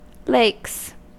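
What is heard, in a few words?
"lakes", American English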